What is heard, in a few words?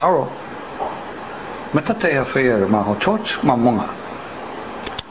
Tobian, dialogue; Dialogue About Two Words for Eating: mangau and hochoch; Koror, Palau, Summer 2006